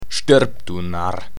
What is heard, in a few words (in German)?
Narr